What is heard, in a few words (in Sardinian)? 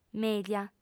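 "mèglia", female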